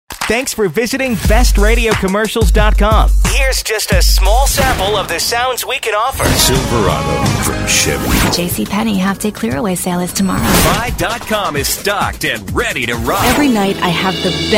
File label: radio commercials commercial